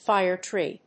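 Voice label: "fire tree", English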